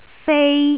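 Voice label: "fei1", Cantonese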